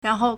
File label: "ran2 hou4", Chinese